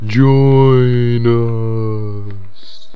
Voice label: join, zombie speech